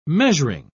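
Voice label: "measuring", British English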